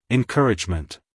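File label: "encouragement", American English, male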